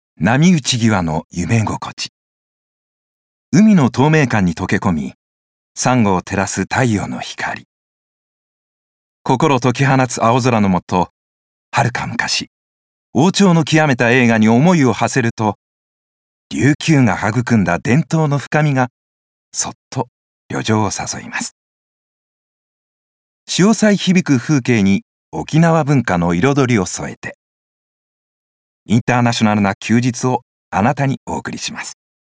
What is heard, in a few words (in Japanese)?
地域文化紹介ナレ